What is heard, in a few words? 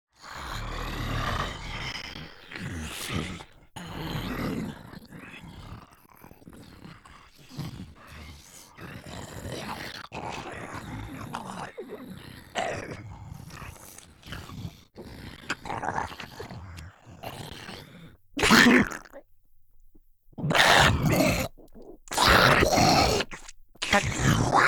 Son Voice